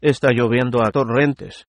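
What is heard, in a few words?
rain, Spanish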